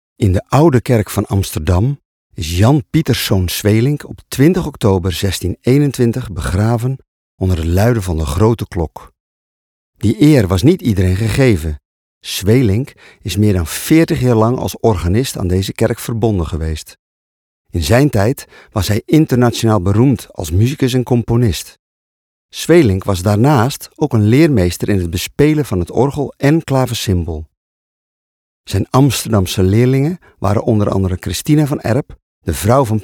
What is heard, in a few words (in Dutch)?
Stemdemo Verhalend